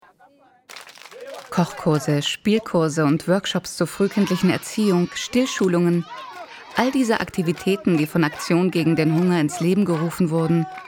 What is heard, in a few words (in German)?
Dokumentarfilm; Aktion gegen den Hunger